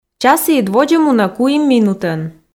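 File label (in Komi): часіыд водзӧ мунӧ куим минутӧн, Komi, female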